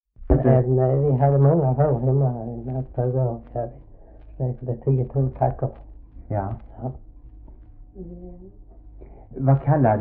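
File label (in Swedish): Oderljunga Skåne, Svenska sagor dialekter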